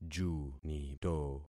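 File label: "junito", concatenated syllables; Affixing experiment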